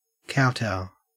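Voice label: "kowtow", Australian English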